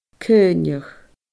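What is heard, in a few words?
"caoineadh", Scottish Gaelic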